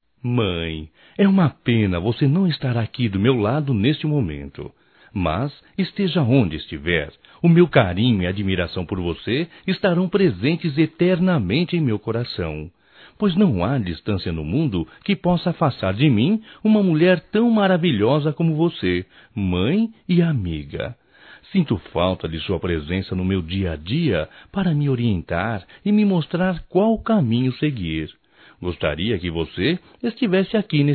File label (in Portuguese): Dia das Mães Distante Masculino; Telemensagens 2017